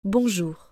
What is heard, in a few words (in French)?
"bonjour", French